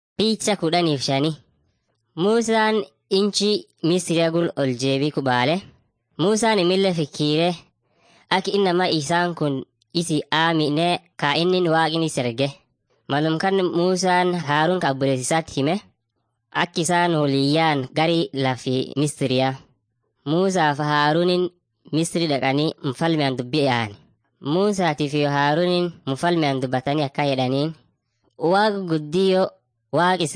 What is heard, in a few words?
afan munyoyaya; mighty men of god